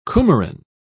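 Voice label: "coumarin", British English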